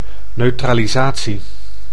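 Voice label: "neutralisatie", Dutch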